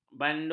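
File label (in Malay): "bandul", Malay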